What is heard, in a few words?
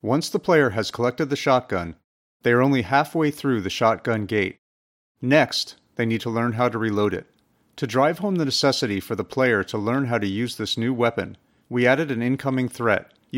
commentary; shotgun gate